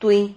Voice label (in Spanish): "tui", Silabas